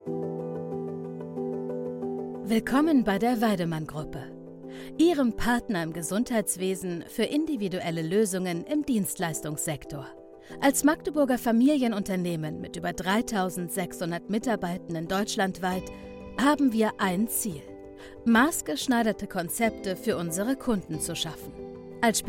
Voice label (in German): imagefilm; weidemann